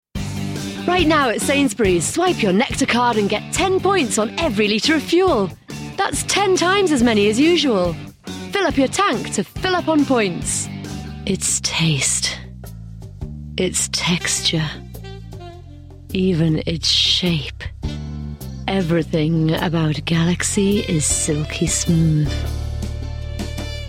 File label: commercials